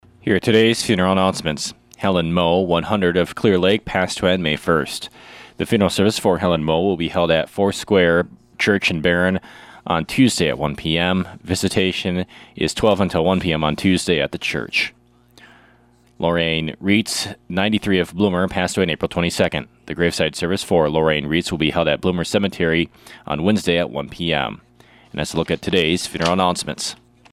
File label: Funeral Announcements